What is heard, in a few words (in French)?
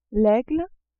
"aigle", French